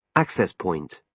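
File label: "access point", British English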